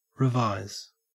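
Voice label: "revise", Australian English; pronunciations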